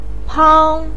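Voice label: "pang", Mandarin pinyin, first tone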